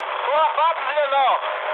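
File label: German attack position mood med, speech; War Thunder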